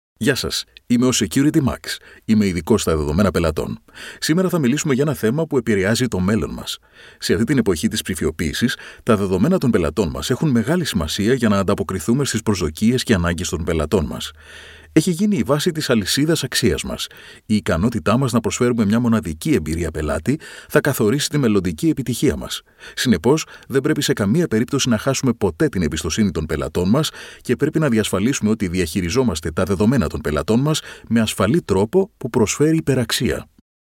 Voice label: greek voice tourguide